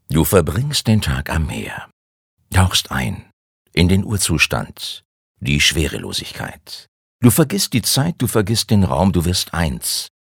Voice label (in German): Schauspiel Neutral